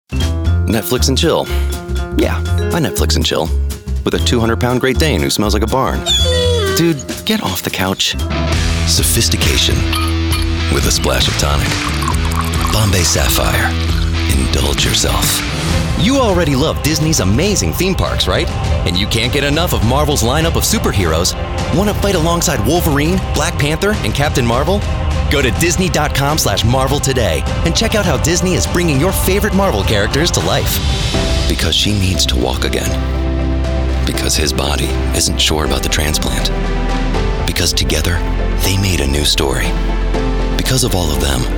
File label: Commercials